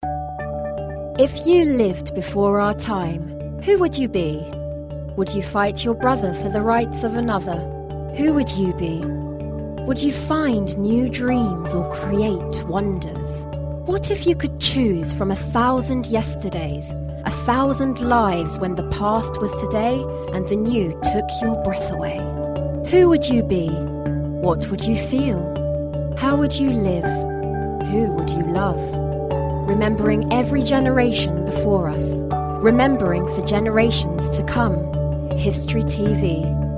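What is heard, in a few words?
ENUK General, British English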